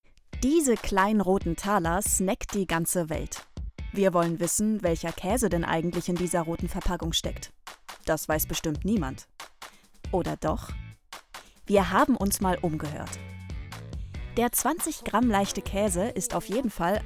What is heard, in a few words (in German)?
Dokumentation jung dynamisch